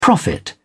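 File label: "prophet", British English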